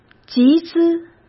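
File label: "集资", Mandarin Chinese; chinese flashcards; hsk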